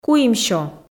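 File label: "куимсё", Komi, female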